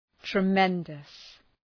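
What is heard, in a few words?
"tremendous", English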